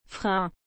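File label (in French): "frein", French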